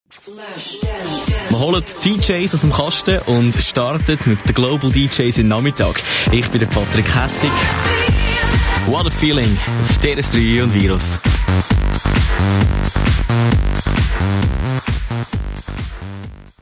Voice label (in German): schwyzerduetsch